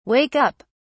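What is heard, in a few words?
"wake up", British English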